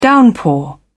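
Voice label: "downpour", British English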